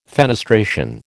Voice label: "fenestration", American